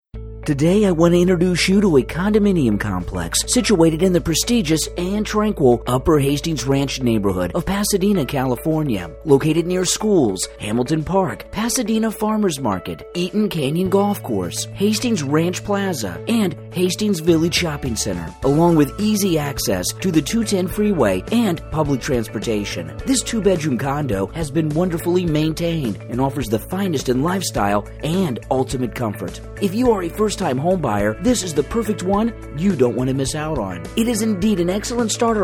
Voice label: radio ad; iheart; Michillina